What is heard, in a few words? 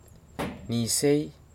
"niséi", Nafe (Kwamera)